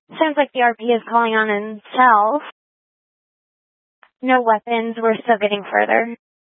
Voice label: Scottsdale Police, Simulcast